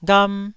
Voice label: "gham1", Taiwanese syllable